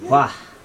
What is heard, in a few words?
"bird", Chug